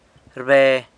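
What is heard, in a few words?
"rbe", chooses, San Lucas Quiaviní Zapotec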